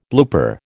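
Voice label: "blooper", American English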